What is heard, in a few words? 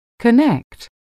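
"connect", English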